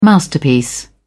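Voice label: "masterpiece", British English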